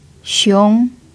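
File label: "xiong2", Chinese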